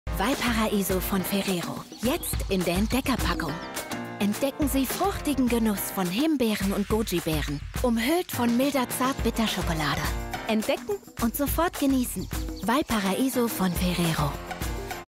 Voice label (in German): tv-werbung